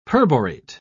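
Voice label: "perborate", British English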